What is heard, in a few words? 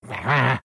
anger; dota2; meepo